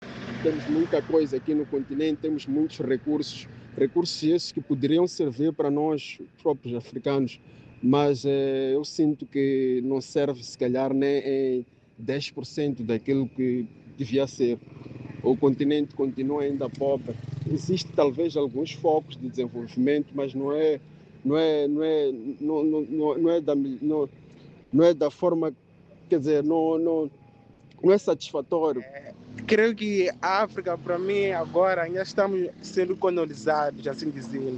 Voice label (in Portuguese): VOX POP CURTO; populacao mocambicana critica politicas exploratorias